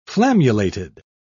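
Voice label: "flammulated", British English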